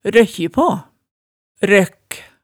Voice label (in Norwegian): "røkkji paa", hemsedalsmaalet